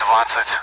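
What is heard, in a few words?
Speech Sound Russian Common AWACS Numbers; DCS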